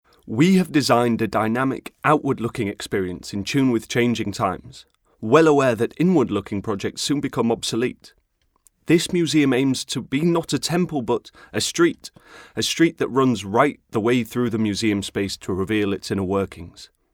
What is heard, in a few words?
male british english documentary comercial; ideasonora